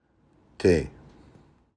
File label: French